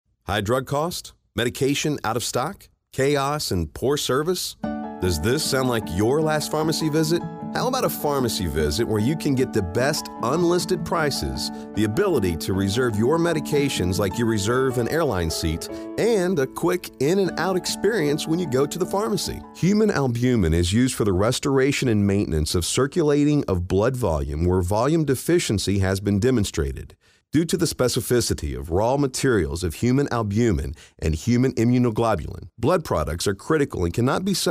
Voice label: Business Narration

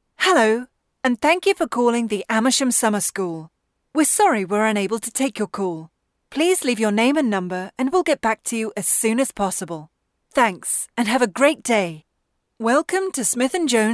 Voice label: Telephony

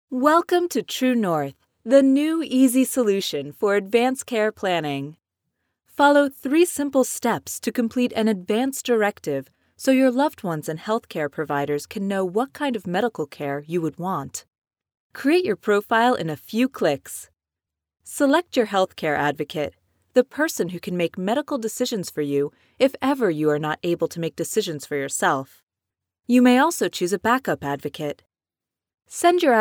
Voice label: Explainer

